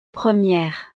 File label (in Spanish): "premiere", frances